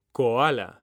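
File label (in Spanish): "KOALA", male; mekanta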